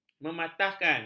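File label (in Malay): "mematahkan", Malay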